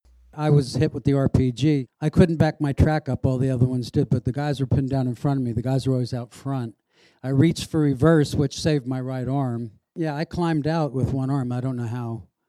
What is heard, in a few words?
plosives original; AUDIO ENGINEERING AUDIO REPAIR; EQ